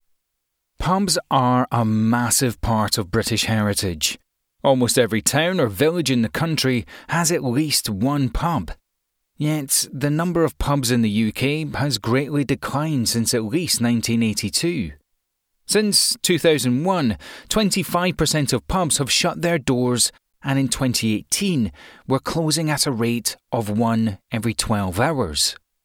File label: english scottish documentaries